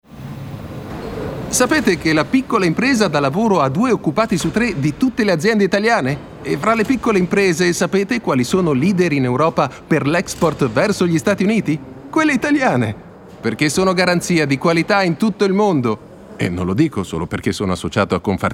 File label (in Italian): RADIO sogg UOMO; CONFARTIGIANATO